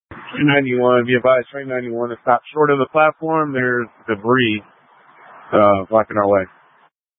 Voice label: Light Rail Emergency, scanner

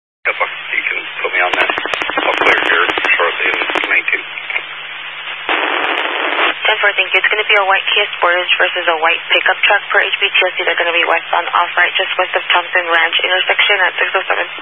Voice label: Highway Patrol, Phoenix Metro West Area, scanner; DPS

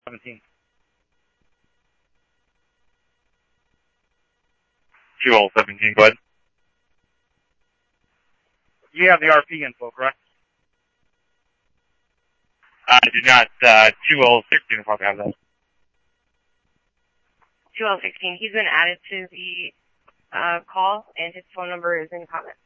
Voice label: Police, scanner audio

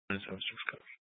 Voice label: Dispatch, scanner; Mesa PD Dpt; 4 Sprstn Dispatch

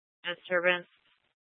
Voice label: Tempe PD, scanner; Rgnl Wireless Co-op (RWC)

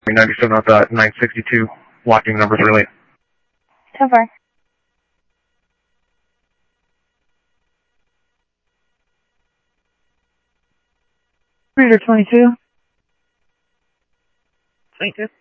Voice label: Scottsdale Police, scanner

